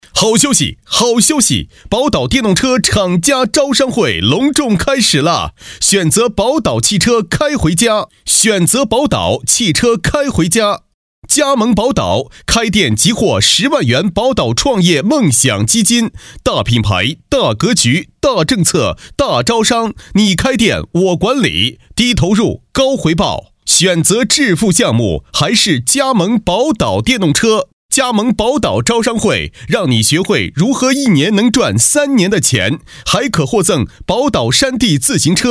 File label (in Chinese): 男 卖场 招商推广, 国语男声配音